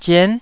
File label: "zien9", Taiwanese syllable